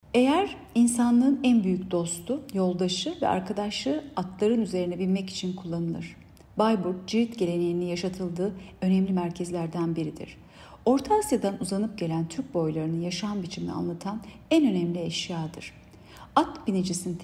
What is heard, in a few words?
turkce